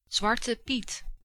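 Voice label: "Zwarte Piet", Dutch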